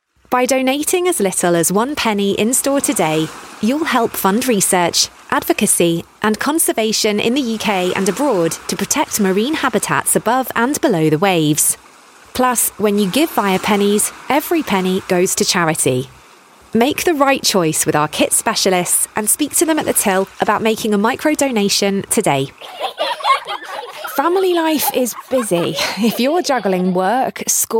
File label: natural narration